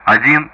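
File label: Speech Russian; DCS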